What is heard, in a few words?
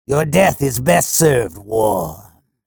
chatter neutral; sorlag